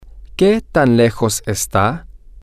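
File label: how far is, spanish, male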